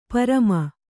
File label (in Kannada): "ಪ್ರೇಮಿ", Kannada